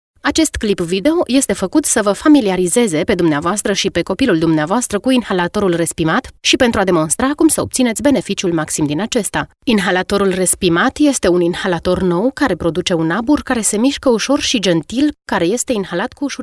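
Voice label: Romanian, female, elearning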